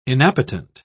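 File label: "inappetent", British English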